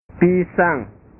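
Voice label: "pisang", Indonesian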